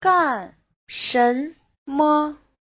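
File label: "gàn shénme", Mandarin Chinese; 干什么 : làm gì; Giáo trình Hán ngữ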